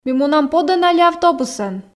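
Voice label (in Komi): ми мунам подӧн али автобусӧн, Komi, female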